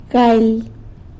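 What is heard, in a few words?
"galy" twenty, San Lucas Quiaviní Zapotec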